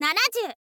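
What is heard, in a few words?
"nanazyuu", Japanese, girl; voice; info girl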